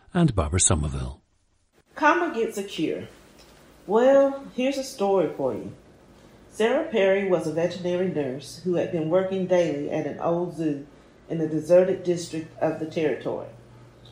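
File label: georgia, International Dialects of English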